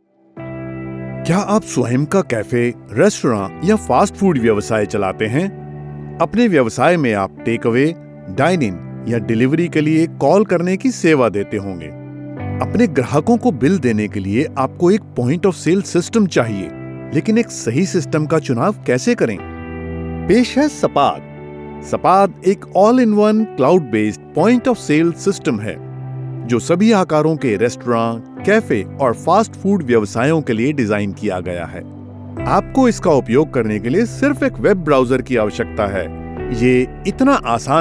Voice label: Explainer Hindi; Sapaad